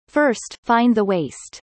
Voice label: fast